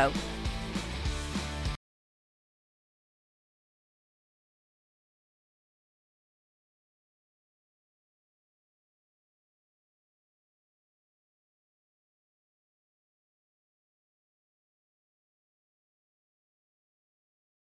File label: Greeting Pls Hold; GoCashGo